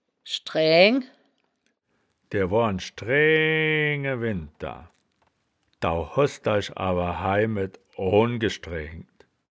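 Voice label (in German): "schdraeaeng", Wittlicher Wörterbuch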